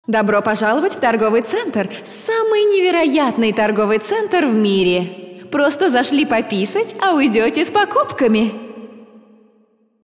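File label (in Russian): reklama, advertisement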